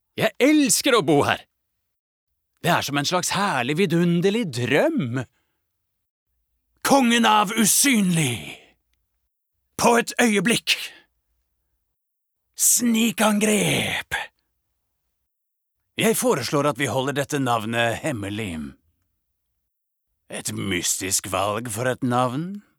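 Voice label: Norwegian character tough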